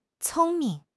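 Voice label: "cong1 ming5", Chinese; HSK3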